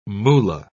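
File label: "moolah", British English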